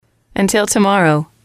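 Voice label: "until tomorrow", English, female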